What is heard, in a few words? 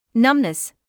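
"numbness", British English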